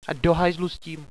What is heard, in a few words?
oh no, hostage reactions